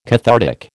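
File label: "cathartic", American English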